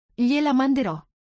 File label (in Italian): "gliela manderò", Italian